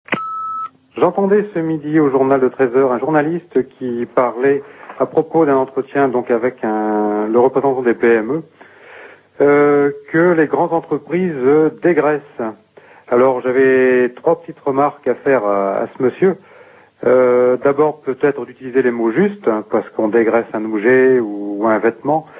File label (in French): Degraissage repondeur